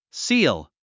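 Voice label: "seal", English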